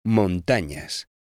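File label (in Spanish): "montañas", Spanish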